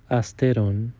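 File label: "h2steron", Proto-Indo-European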